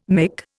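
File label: "mick", English, Indian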